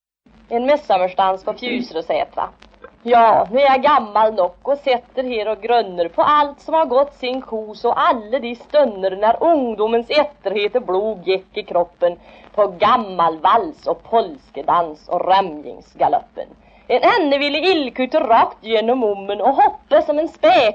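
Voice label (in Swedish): Järnskog Värmland, dialekter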